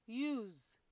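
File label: "use", Nigerian Pidgin, female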